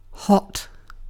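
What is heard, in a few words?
"hot", British English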